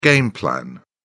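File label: "game plan", English, British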